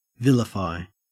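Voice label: "vilify", Australian English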